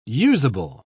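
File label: "useable", British English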